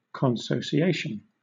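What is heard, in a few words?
"consociation", British English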